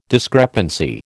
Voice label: "discrepancy", American

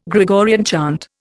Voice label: "Gregorian chant", Indian

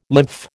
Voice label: "lymph", American

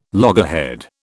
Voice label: "loggerhead", British

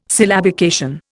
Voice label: "syllabication", Indian

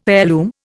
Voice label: "heirloom", Indian

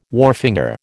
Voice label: "wharfinger", American

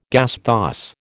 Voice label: "gasthaus", American

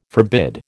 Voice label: "forbid", American

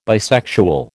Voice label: "bisexual", American

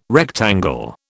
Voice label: "rectangle", British

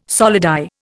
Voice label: "solidi", Indian

